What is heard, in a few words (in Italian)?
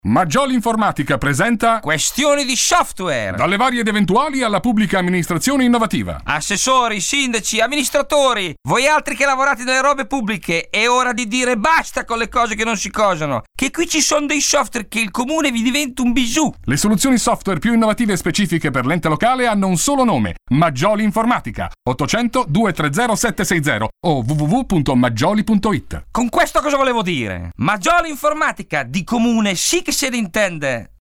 Spot radio; Maggioli informatica